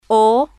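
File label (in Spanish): "o", spanish, female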